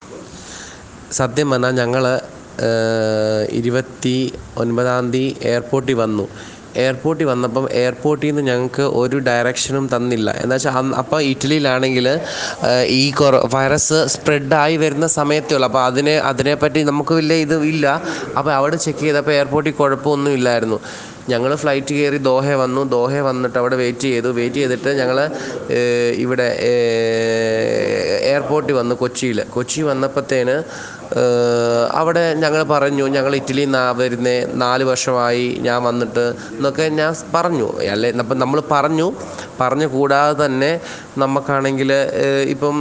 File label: Voice Over Italy Family